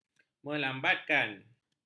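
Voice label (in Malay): "melambatkan", Malay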